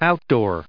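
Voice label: "outdoor", English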